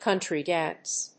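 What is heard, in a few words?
"country-dance", English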